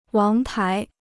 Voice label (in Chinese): "王牌", Chinese